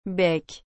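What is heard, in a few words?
"bec", French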